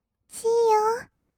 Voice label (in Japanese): シーユー, kawaii voice; 挨拶